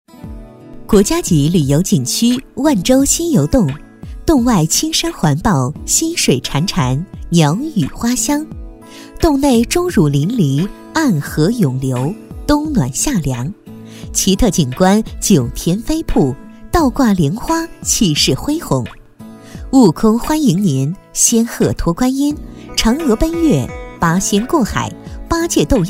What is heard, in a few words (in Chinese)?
女-专题; 万州西游洞